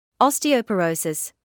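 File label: "osteoporosis", British English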